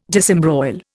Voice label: "disembroil", Indian

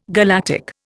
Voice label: "galactic", Indian

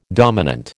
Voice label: "dominant", American

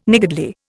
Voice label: "niggardly", Indian

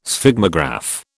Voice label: "Sphygmograph", British

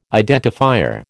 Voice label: "identifier", American

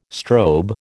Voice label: "strobe", American

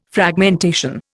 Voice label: "Fragmentation", Indian

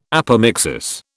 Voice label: "apomixis", British

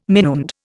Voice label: "minuend", Indian

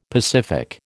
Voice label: "pacific", American

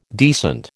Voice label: "decent", American

